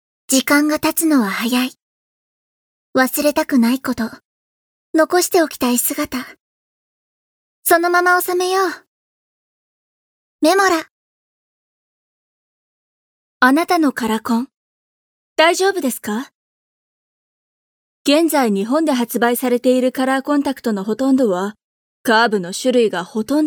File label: narration